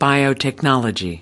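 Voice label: "biotechnology", US English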